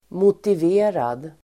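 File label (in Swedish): "motiverad", Swedish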